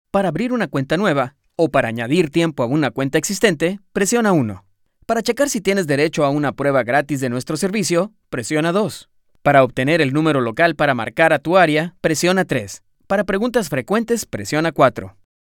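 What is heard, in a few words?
IVR Phone Messaging, Spanish voice-over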